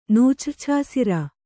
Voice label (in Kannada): "ನೂಚ್ರ್ಛಾಸಿರ", Kannada